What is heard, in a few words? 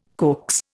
"coax", Indian